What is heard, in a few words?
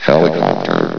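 "helicopter", robotic voice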